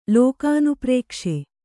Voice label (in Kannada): "ಲೋಕಾನುಪ್ರೇಕ್ಷೆ", kannada